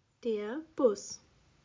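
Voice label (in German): "Bus", German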